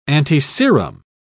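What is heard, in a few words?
"antiserum", British English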